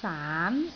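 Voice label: "saan2", Cantonese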